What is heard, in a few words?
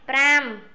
khmer five